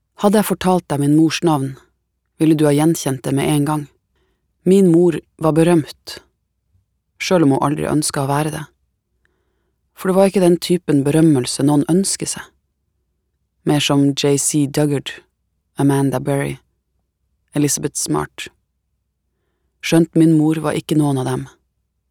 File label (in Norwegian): no lydbok finnmarksdialekt